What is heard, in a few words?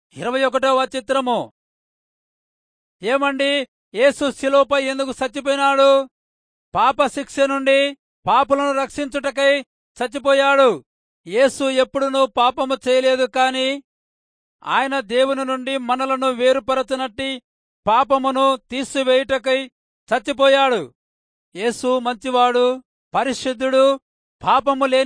telugu rayalseema; good news